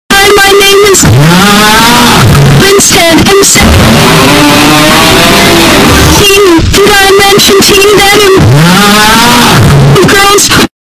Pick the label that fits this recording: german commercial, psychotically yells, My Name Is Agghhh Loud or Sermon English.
My Name Is Agghhh Loud